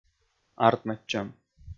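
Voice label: "артмӧдчӧм" Komi, male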